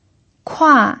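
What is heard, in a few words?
"kua" fourth tone, pinyin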